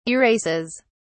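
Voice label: "erases", British English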